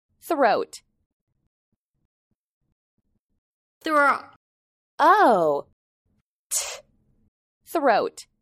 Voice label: "throat", English